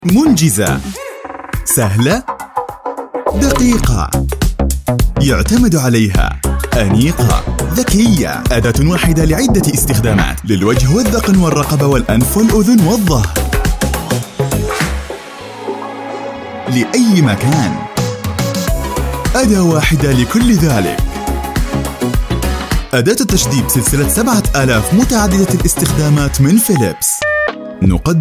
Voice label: arabic voice over male narration

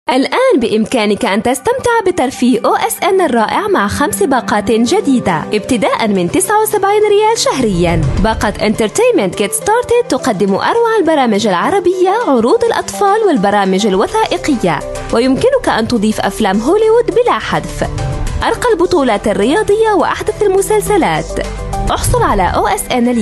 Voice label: arabic voice over female commercial

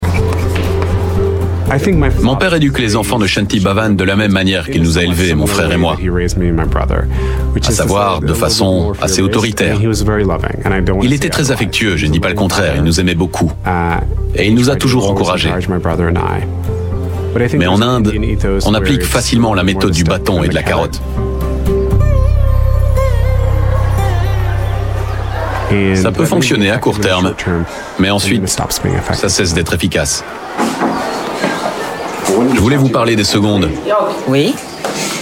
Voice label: french voice over male documentary

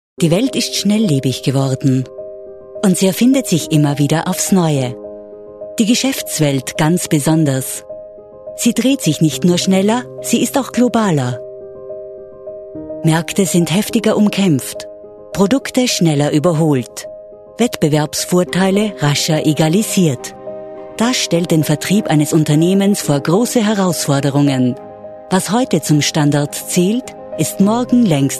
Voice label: germany voice over female narrator

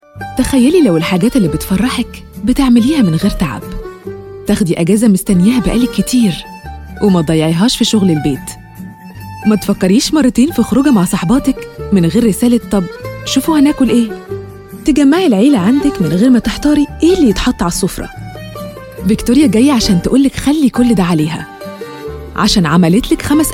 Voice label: arabic voice over female narrator

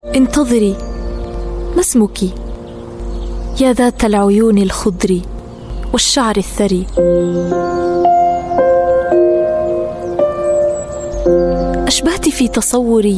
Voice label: arabic voice over female poem

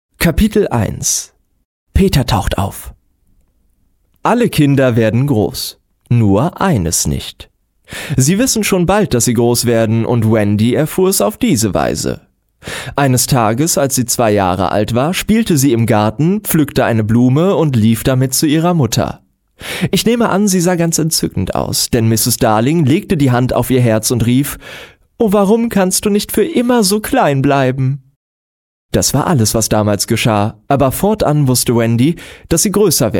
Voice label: german voice over male audiobook

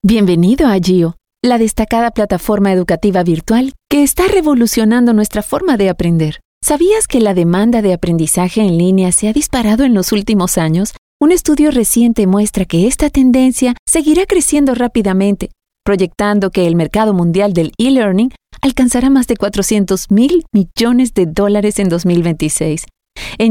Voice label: spanish voice over female commercial

